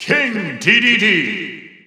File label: English Announcer; King Dedede; SSB4; SSBU